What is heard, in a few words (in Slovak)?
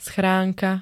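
"schránka", slovenské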